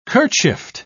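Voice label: "kerchiefed", British English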